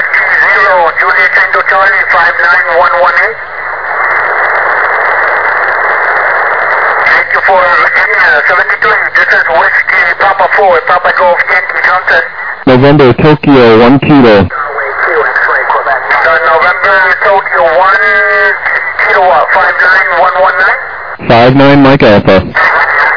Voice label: USB 28MHz; ARRL10M